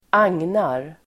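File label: "agnar", Swedish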